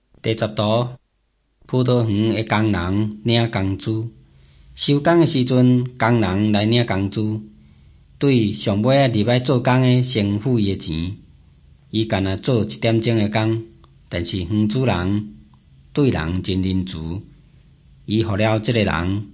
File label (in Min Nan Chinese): taiwanese, drama